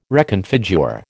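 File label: "reconfigure", American English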